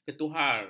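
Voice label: "ketuhar", Malay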